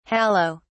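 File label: "hallow", British English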